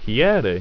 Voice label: "hiere", ido